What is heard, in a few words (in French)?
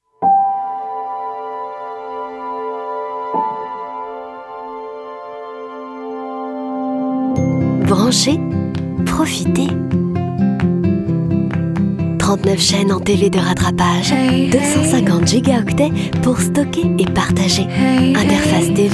souriante légèrement dans le souffle; Freebox Révolution